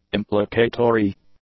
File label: "implicatory", English; adjectives